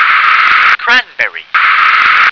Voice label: "cranberry", English

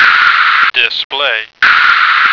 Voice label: "display", American

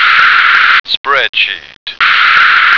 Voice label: "spreadsheet", American

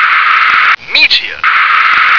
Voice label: "meteor", English

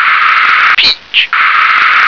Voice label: "peach", English

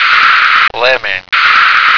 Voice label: "lemming", American

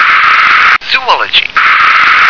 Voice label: "zoology", English

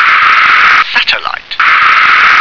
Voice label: "satellite", English

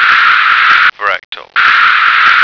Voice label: "fractal", American

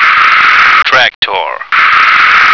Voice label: "tractor", American

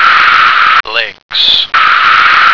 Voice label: "lynx", American

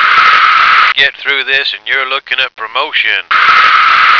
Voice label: "get thru", American

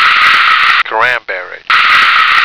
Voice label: "cranberry", American

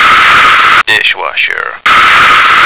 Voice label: "dishwasher", American

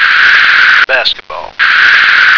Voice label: "basketball", American

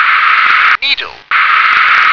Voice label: "needle", English

